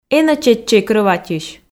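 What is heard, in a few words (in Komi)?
энӧ чеччӧй крӧватьысь, Komi, female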